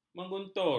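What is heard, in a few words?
"mengguntur", Malay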